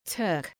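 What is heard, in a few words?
"Turk", English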